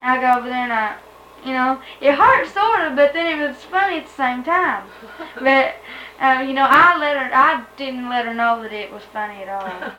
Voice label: Conversation with 12 year old white female Arkansas, american english dialect